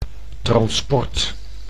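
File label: "transport", Dutch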